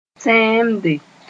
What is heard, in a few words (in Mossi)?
"tẽemde", Moore